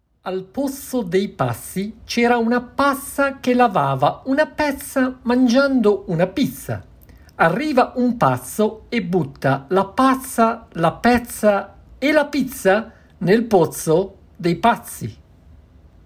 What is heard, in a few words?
Pizza Tongue Twister